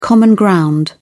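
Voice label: "common ground", British English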